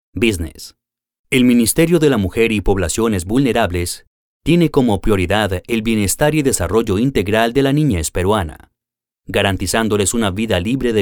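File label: Latin American Spanish, Narrative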